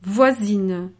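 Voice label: "voisine", French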